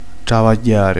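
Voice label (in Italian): "lavorare", Italian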